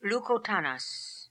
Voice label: "Lookotanas", pronounciations; Fourth Way; Beelzebub

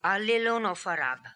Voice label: "Alillonofarab", pronounciations; Fourth Way; Beelzebub

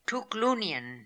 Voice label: "Tookloonian", pronounciations; Fourth Way; Beelzebub

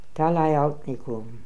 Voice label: "Talaialtnikoom", pronounciations; Fourth Way; Beelzebub

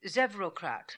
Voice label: "Zevrocrat", pronounciations; Fourth Way; Beelzebub

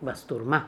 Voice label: "bastourma", pronounciations; Fourth Way; Beelzebub

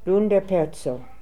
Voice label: "Loonderperzo", pronounciations; Fourth Way; Beelzebub

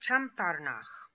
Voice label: "Champarnakh", Beelzebub pronounciations; Fourth Way

